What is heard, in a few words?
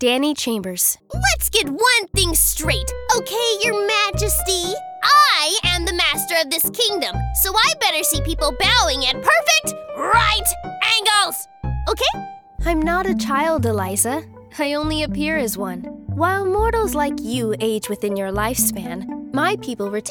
Animation